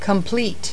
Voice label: "complete", voices